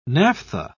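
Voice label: "naphtha", British English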